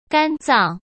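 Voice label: "肝脏", Mandarin Chinese; chinese flashcards; hsk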